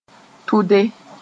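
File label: "tʋde", Mooré; button up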